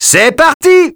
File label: ok, French; Birthday Party Bash; Wii